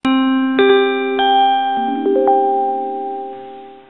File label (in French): annonce sncf; avant 2005